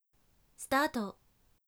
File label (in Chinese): 开始, 女生 姐姐; 计时30秒